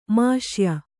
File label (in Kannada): "ಮಾಷ್ಯ", Kannada